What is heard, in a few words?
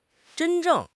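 "zhen1 zheng4", Mandarin Chinese; HSK4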